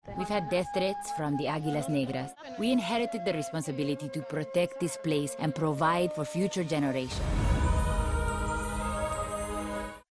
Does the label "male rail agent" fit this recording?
No